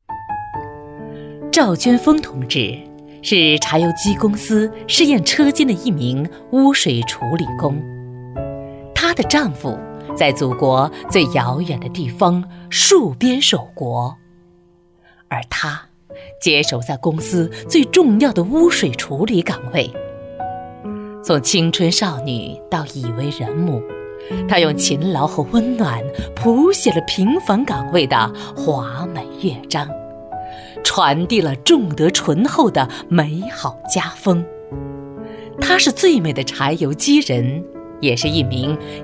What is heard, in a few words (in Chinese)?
女 专题 有感情讲述 人物